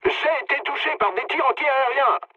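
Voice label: French damaged aa mood low; War Thunder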